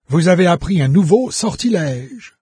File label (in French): new spell, VOICES fr NARRATOR; Fate